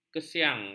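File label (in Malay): "kesiangan", Malay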